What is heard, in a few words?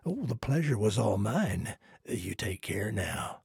trader male like quest declined